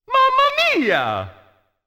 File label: jingle ~ mama mia